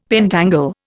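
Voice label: "pentangle", Indian English; Dictionary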